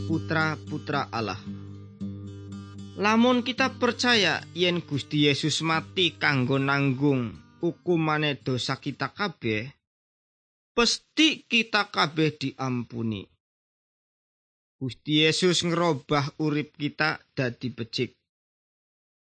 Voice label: good news jawa indramayu, javanese bible